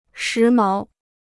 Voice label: "时髦", Chinese

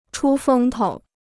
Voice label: "出风头", Chinese

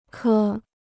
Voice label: "颗", Chinese

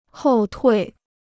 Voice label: "后退", Chinese

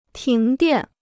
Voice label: "停电", Chinese

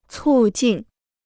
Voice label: "促进", Chinese

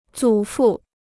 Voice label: "祖父", Chinese

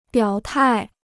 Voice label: "表态", Chinese

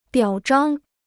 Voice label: "表彰", Chinese

